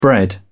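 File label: "bread", British English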